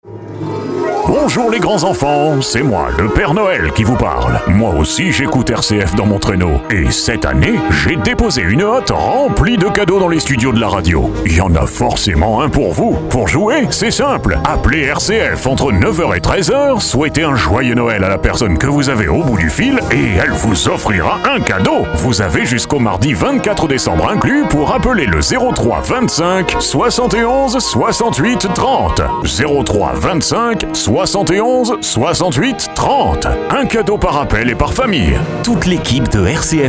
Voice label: Voix Hom Pere Noel; PereNoel OFFICIELLE

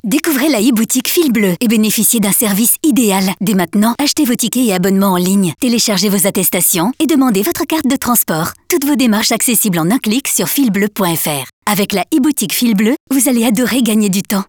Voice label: VOIX FEM Jeune Dynamique

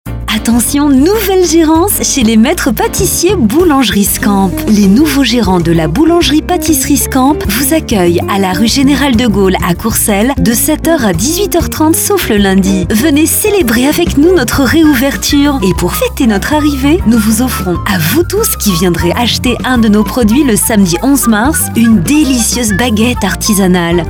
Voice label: VOIX FEM

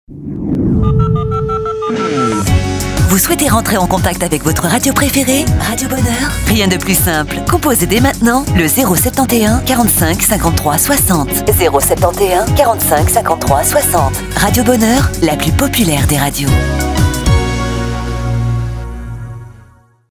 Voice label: VOIX FEM

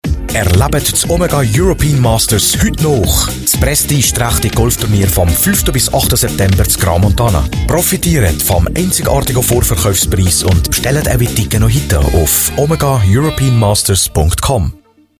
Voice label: Voix Hom Allemande

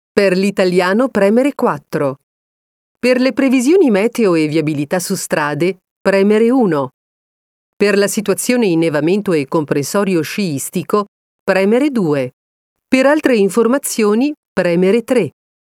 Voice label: Voix Fem Italienne Adulte

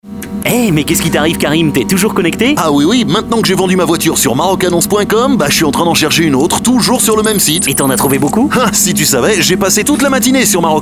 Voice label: Voix Hom Jouees

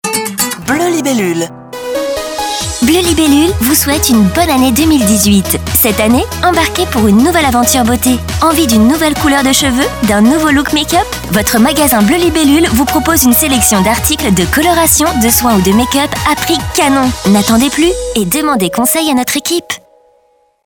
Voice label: VOIX FEM

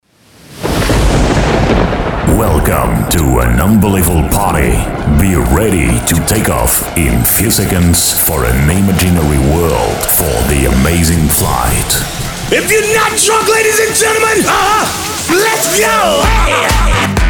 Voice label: Voix Hom Americaine

